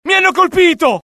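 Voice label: alert, Italian; mohaa; dialogue; sighted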